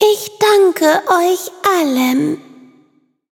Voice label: level up, de

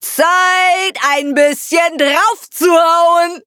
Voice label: loading, de; cookie run

